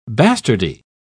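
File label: "bastardy", British English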